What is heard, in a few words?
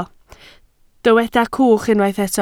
"cwch", Welsh